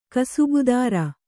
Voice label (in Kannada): "ಕಸಬುದಾರಿ", kannada